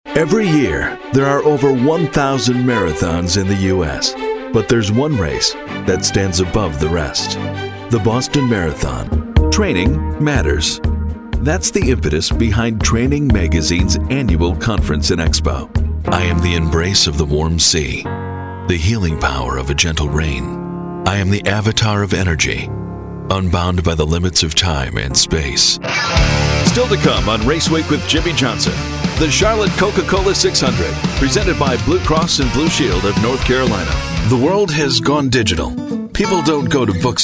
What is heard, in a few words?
American male